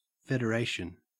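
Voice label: "federation", Australian English; pronunciations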